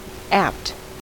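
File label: "apt", US English; pronunciations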